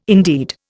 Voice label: "indeed", Indian